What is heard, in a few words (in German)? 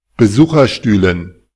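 "Besucherstühlen", German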